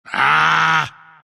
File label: anger; dota; omniknight